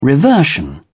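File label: "reversion", British English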